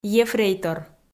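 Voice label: "ефрейтор", Komi, female; audio dict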